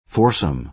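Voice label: "foursome" American English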